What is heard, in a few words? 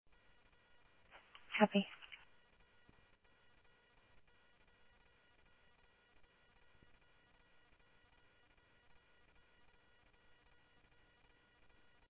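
Simulcast police department, scanner audio trunk; Mesa Simulcast; MESA PD 2 Centrl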